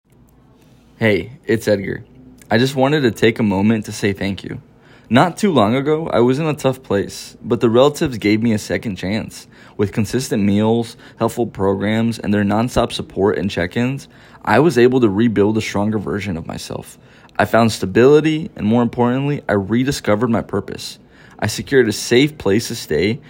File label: Voicemail